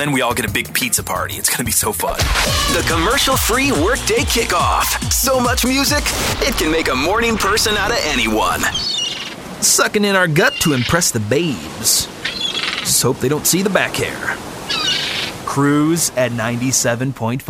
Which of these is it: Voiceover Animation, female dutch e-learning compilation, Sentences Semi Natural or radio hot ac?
radio hot ac